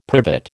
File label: "privet", American